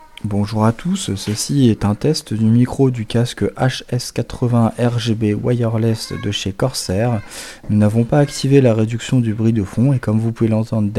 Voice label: test micro casque corsair hs80 rgb wireless sans reduction de bruit

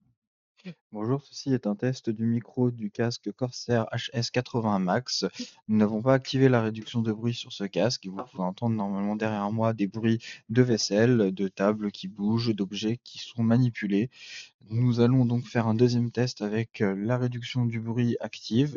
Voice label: test micro casque corsair hs80 max sans reduction de bruit

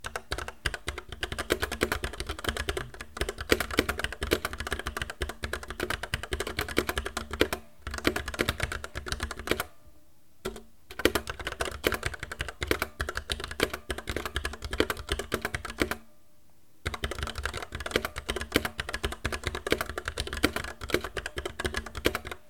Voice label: enregistrement son asus rog horus gk2000 v2

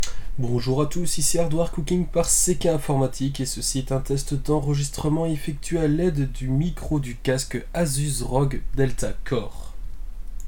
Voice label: test micro casque asus rog delta core

